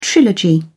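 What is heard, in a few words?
"trilogy", British English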